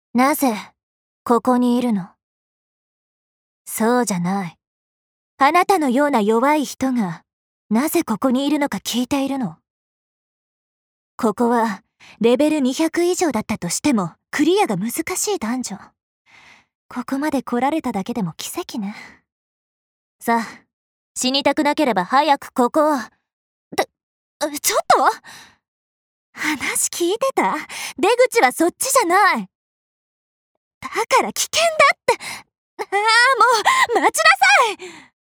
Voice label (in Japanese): アニメ